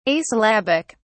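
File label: "asyllabic", British English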